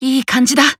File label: Emo Glad